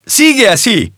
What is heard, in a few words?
Spanish - balloonpop